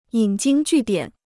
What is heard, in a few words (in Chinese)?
"引经据典", Chinese